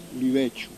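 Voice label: "libecciu", Corsican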